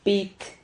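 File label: "pit", Spanish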